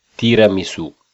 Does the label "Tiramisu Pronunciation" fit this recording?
Yes